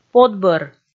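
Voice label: "подбӧр", Komi, female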